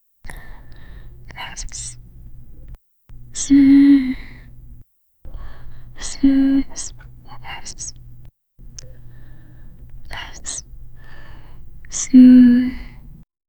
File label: a woman whispering the wo